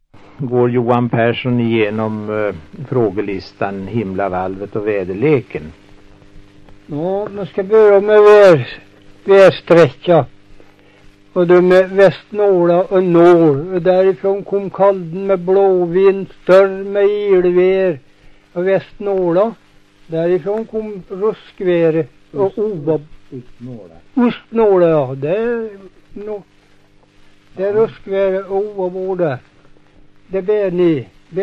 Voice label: Hede, dialekter; Folkminnen

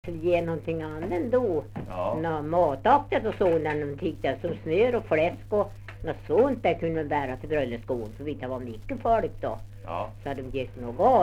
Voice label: dialekter; Folkminnen; Svenska sagor

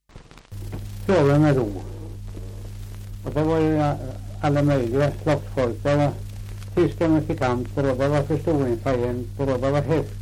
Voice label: Björnlunda, dialekter; Folkminnen

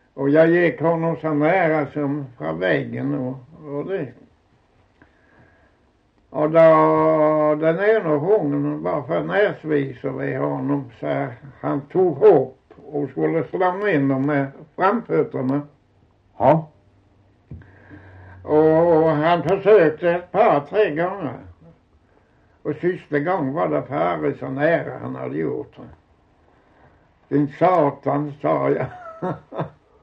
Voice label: Osby, dialekter; Folkminnen; Svenska sagor

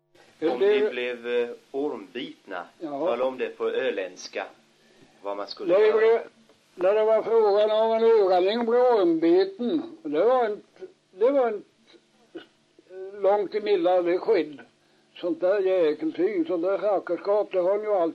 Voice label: dialekter; Folkminnen; Svenska sagor

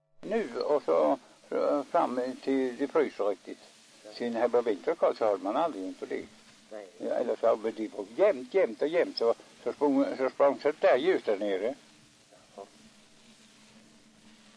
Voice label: dialekter; Folkminnen; Svenska sagor

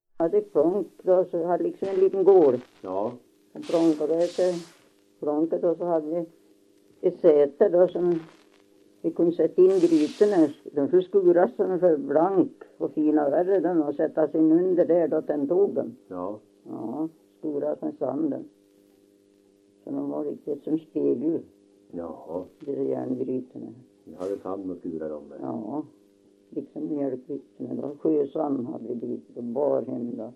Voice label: dialekter; Folkminnen; Svenska sagor

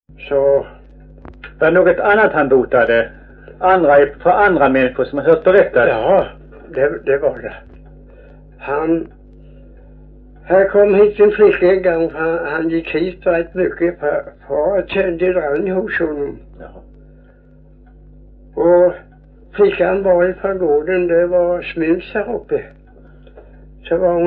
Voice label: Visseltofta SK, dialekter

